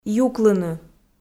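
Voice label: "юклыны", Komi, female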